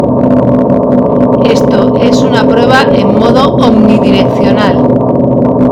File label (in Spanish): omnidireccional